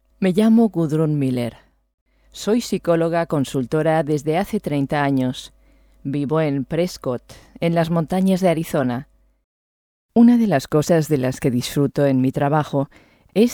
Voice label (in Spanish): es docum; Joya Azul